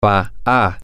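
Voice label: male, spanish; she is going to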